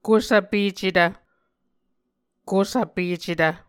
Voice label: "kusvpecetv", Muscogee

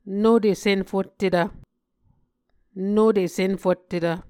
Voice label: "nute senfottetv", Muscogee

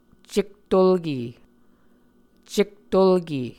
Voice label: "cektolke", muscogee

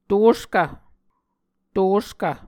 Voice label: "toskv", muscogee

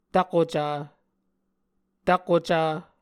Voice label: "tvkoca", Muscogee

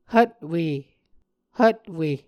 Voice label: "hvtwe", Muscogee

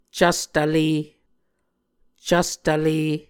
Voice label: "cvstvle", muscogee

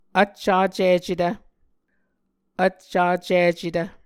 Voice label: "vccacicetv", Muscogee; Mvskoke Words with Repeated Consonants; Martin, Jack B., and Margaret McKane Mauldin. 2023. A Dictionary of Creek/Muskogee

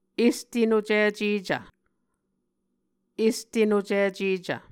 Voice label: "este nocicecv", Muscogee; Mvskoke Words with Voicing Consonants; Martin, Jack B., and Margaret McKane Mauldin. 2023. A Dictionary of Creek/Muskogee; SIL International

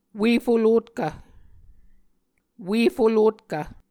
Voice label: "ue folotkv", Muscogee

